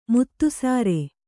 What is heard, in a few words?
"ಮುತ್ತುಸಾರೆ", Kannada